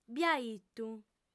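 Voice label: "biaitu", female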